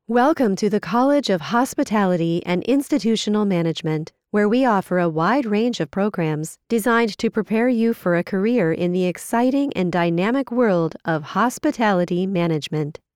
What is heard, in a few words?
E-learning Hospitality